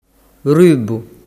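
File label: "rubbu", Sicilian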